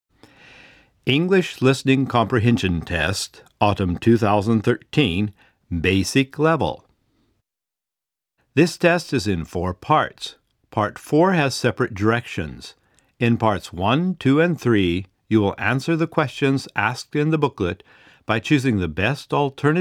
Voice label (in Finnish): Englanti lyhyt kuuntelukoe äänite syksy; Engelska kort hörförståelseprov inspelning hösten